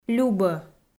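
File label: "любӧ" Komi, female; kpv audio dict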